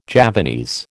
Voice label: "Javanese", American